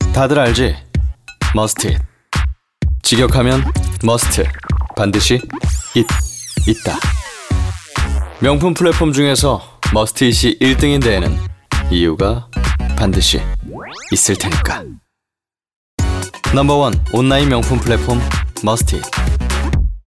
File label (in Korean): 광고 멋있는; 머스트잇